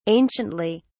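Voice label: "anciently", English, British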